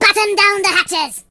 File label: Incoming, Pirate voice; hedgewars